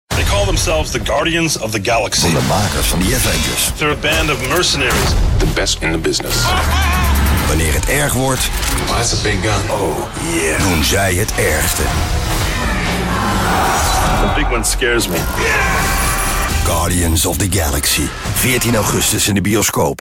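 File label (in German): Dutch Werbung Kraftvoll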